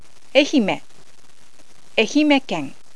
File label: "ehime", Shikoku